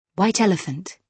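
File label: "white elephant", British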